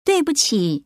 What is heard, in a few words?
excuse me mandarin